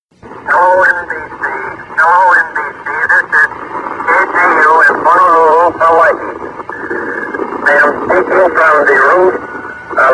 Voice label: broadcast pearl harbor attack; KGU; Three hours into the Pearl Harbor attack, a KGU reporter climbed to the roof of the Advertiser building